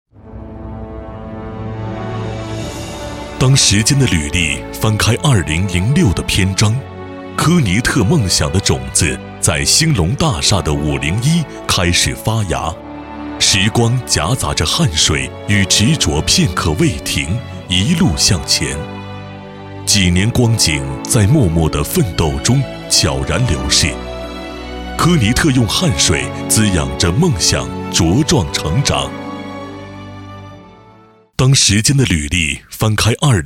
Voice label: 男声, 大气讲述, 国语; 交广主播

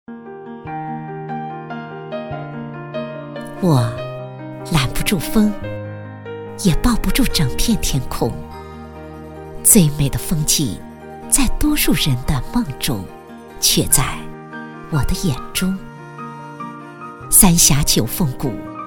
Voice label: 女 专题 宣传片解说; 九凤谷

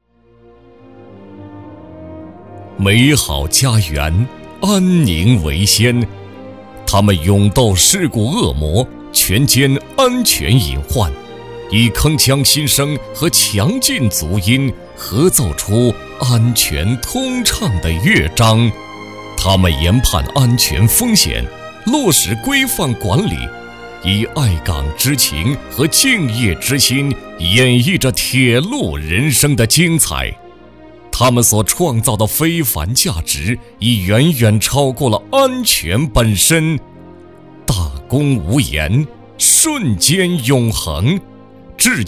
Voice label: 男100-颁奖-人物颁奖 大气 浑厚, 国语男声配音

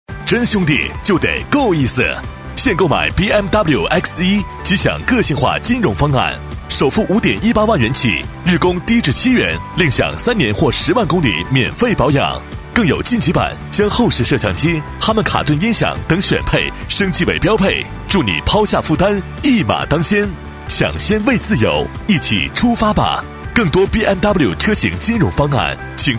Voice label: 男60号 广告; BMW-X1形象片; 交广主播

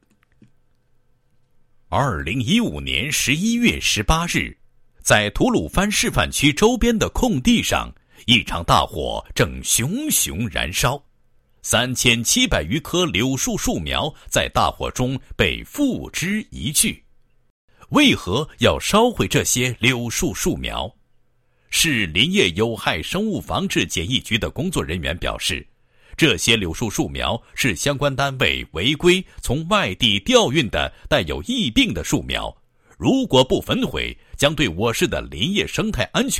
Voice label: 男-解说词-为森林城市构筑绿色防线, 配音案例

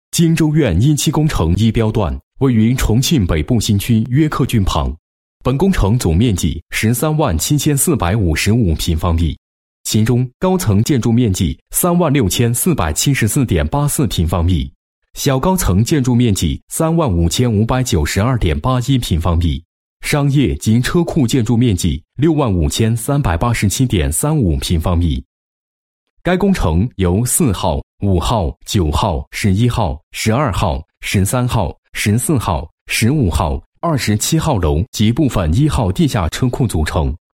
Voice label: 男 工程解说 工程解说词; 金州苑

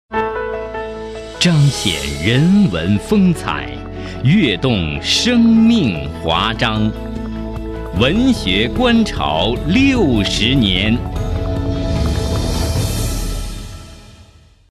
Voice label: 男声 抒情 广告文学 慢抒

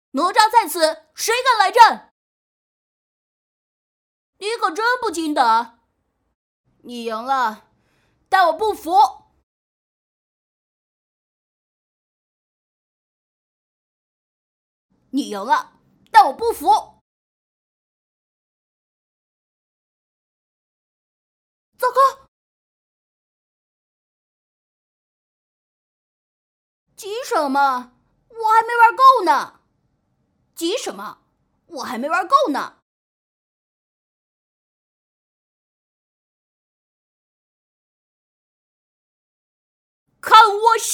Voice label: 女 游戏, 国语女声配音; 哪吒

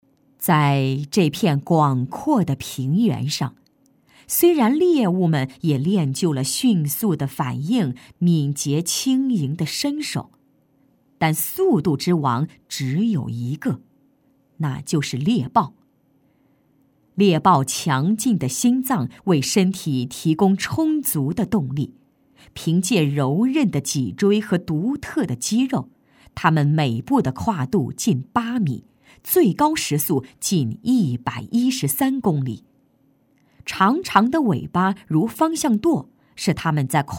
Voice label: 女 纪录片, 成熟风格; 草原速度之王 猎豹

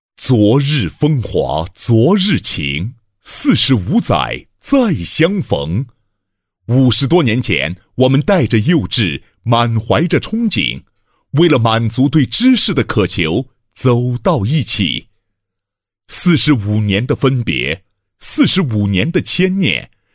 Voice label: 男 政府建设 全力支持轨道交通产业发展宣传配音; 株洲市委市政府

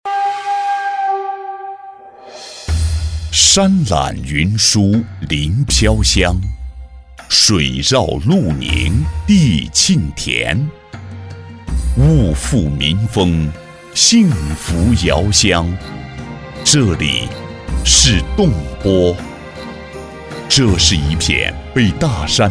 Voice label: 男 秘境宣传配音

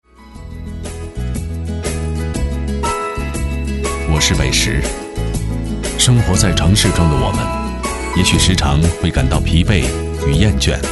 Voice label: 男 汽车广告; 帝豪

